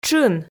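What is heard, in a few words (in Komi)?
"тшын", Komi, female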